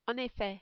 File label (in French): en effet, French